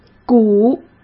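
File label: "古", Mandarin Chinese; chinese-flashcards; hsk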